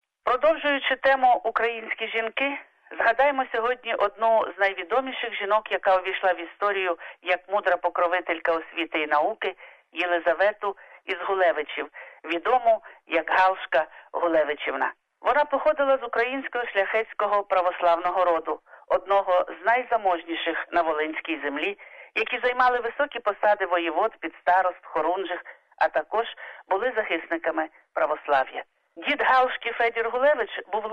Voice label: ukrainian; Yelysaveta Hulevychivna (Hulevych). Source: Ukrainian History.; "ЯКЩО ЗЕРНО ПШЕНИЧНЕ, УПАВШИ В ЗЕМЛЮ, НЕ ВМРЕ..."; SBS Ukrainian; Розповідь про благородну і мудру жінку з роду давнього і славетного